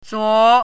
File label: "zuo2", Chinese pinyin